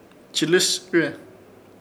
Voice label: "Chɨlɨsɨre", Ishir Chamacoco; inflarse

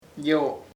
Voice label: other, chamacoco

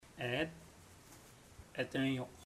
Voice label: come with me, chamacoco; Puerto Diana

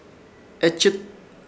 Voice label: "Echɨt", Ishir Chamacoco; interior

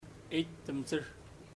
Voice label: Im sleepy, chamacoco; Puerto Diana

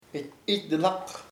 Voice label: at night, chamacoco; de noche

